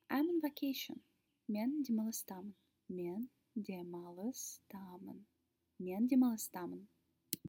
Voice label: I am on vacation, kazakh